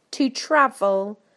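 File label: "to travel", British English; PictureDictionary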